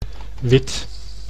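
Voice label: "wit", Dutch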